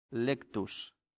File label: "lectus", latin; santillana